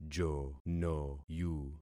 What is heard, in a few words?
"jonoyu", concat syllables; Affixing experiment